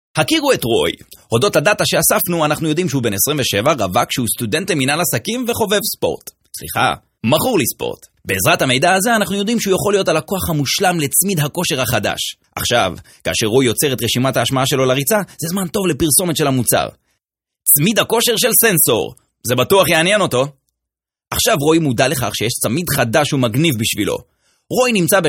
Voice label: hebrew commercial